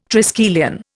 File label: "triskelion", Indian